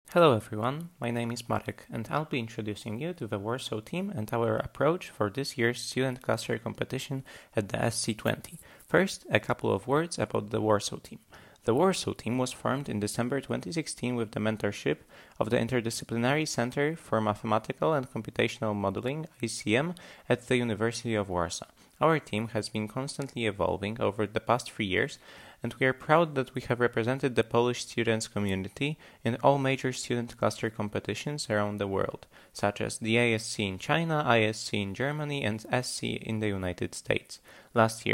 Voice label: Voiceover; ClusterComp 2020